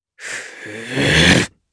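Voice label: Vox Casting Japanese; Lucias; kings raid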